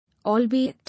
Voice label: "Albeit", English

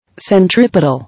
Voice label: "Centripetal", English

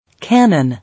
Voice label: "Cannon", English

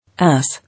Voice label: "Ass", English

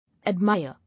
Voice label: "Admire", English

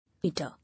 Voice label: "Utter", English

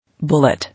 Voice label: "Bullet", English

